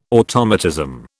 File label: "automatism", British